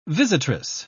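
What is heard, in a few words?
"visitress", English, British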